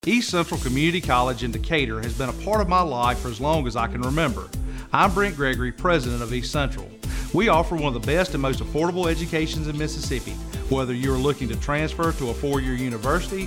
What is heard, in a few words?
radio